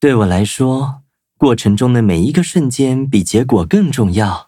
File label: loading, zh-hant